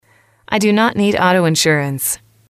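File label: i do not need auto insurance, English, female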